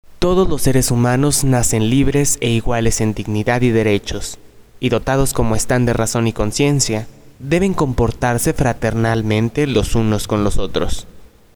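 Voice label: Mexican Spanish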